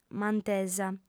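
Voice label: "mantesa", female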